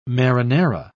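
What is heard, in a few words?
"marinara", English, British English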